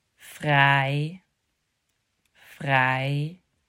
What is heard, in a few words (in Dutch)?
"fraai" "fraai", vergelijkende woorden